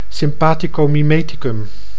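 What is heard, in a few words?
"sympathicomimeticum", Dutch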